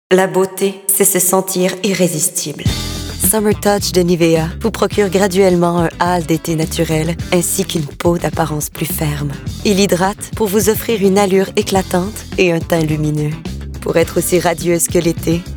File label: voice acting